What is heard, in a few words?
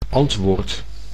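"antwoord", Dutch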